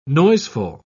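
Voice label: "noiseful", British English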